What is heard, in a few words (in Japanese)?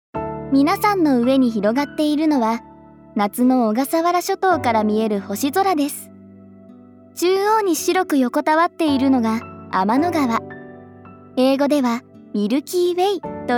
ナレーション